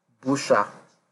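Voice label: "busha", Nigerian Pidgin, male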